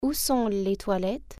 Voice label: where is the restroom, French

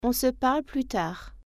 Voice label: talk to you later, French

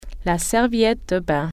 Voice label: "towel beach", French

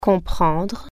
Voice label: "understand", French

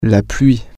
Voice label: "the rain", French

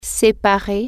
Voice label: "separate", French

